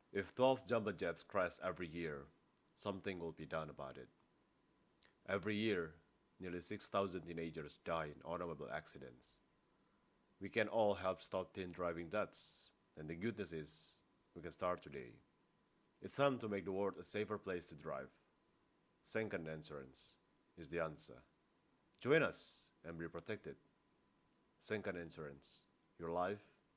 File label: Formal English